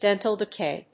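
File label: "Dental Decay", English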